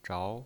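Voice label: "zhao" Mandarin Chinese pinyin voice, second tone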